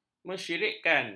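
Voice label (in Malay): "mensyirikkan", Malay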